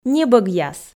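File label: "небӧгъяс", Komi, female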